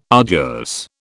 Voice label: "arduous", British